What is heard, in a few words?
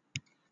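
"lapidary", British English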